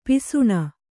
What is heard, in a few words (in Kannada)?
"ಪಾಸಾಣ", Kannada